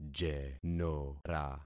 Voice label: "jenora", concat syllables; Affixing experiment

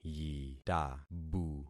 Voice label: "yitabu", concat syllables; Affixing experiment

